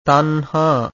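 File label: "tanhaa", Pali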